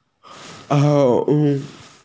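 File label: "hao3", Mandarin Chinese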